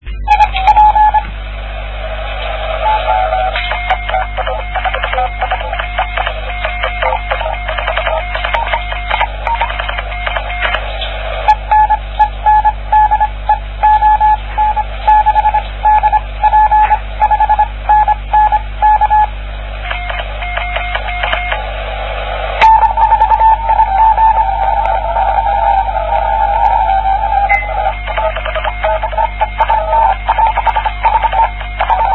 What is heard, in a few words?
qso